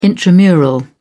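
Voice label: "intramural", English, uk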